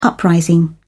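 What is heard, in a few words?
"uprising", British English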